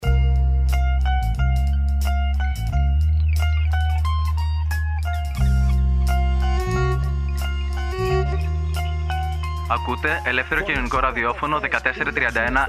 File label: spot, Σποτάκι για FM / AM & .org; Σποτάκια 1431ΑΜ